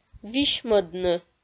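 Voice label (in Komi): "висьмӧдны", Komi, female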